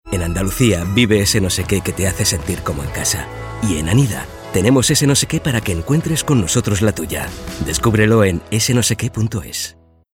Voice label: male spanish andalucia comercial; ideasonora

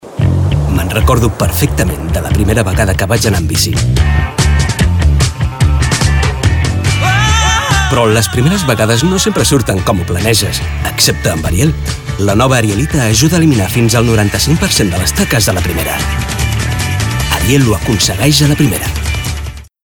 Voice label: male catalan gel comercial; ideasonora

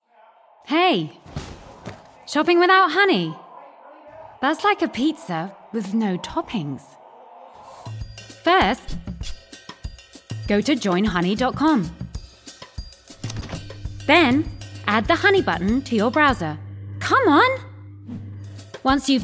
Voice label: female british english commercial

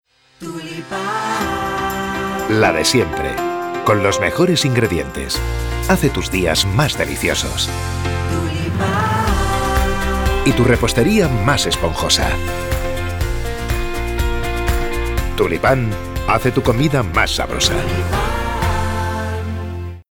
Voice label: male spanish comercial; ideasonora

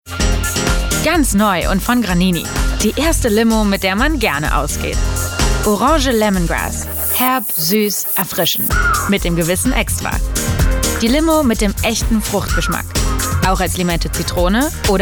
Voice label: female german comercial

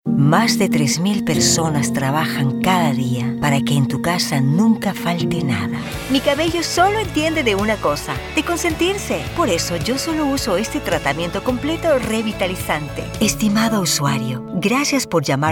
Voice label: female ecuatorian comercial; ideasonora

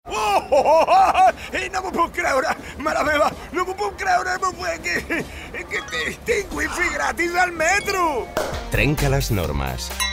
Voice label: male catalan champagne comercial; ideasonora

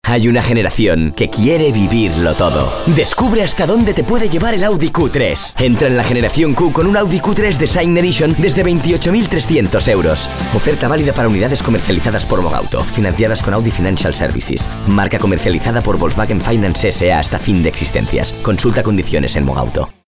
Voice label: male spanish car comercial

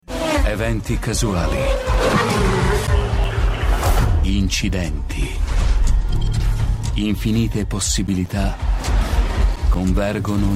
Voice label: male italian comercial

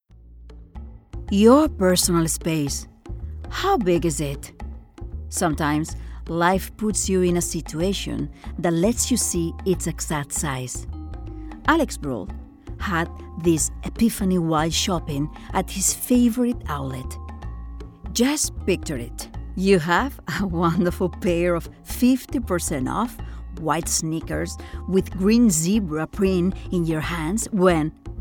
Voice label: female non native english comercial; wallmart; ideasonora

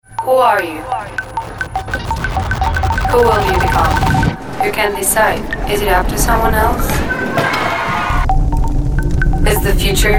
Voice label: female american english comercial; nike

